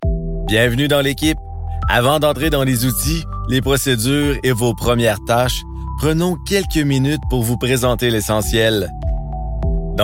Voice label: E-LEARNING Warm Welcoming Trustworthy Team Onboarding